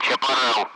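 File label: Speech Sound Russian Common Allied Flight; AirDefence; Chaparral; DCS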